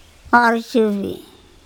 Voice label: "OrSubi", Andamanese; a non-poisonous snake